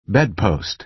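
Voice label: "bedpost" American English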